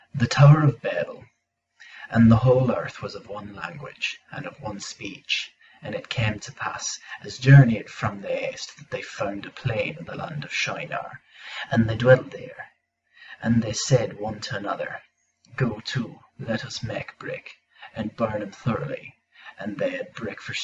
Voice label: early modern english